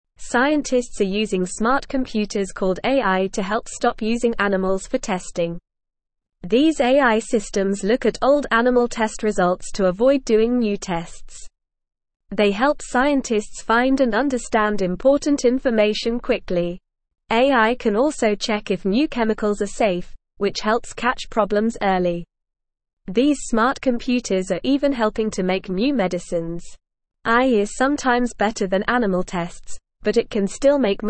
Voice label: English NORMAL Reading; Smart Computers Help Scientists Stop Animal Testing